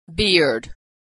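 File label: "beard", American English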